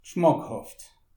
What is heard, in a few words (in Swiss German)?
"gschmackhaft", alsace